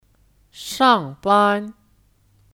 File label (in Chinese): "上班", Shàngbān; Berangkat kerja; Sedang bekerja